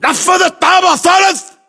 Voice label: location third floor window, english battlechatter